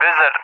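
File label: "Wizard", English speech; CallSign; DCS; Allied Flight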